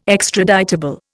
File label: "extraditable", Indian